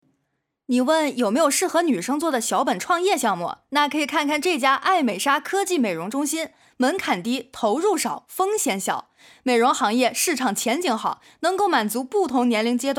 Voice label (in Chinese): 普通话素人女卖货